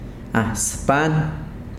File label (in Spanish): hoja, yanesha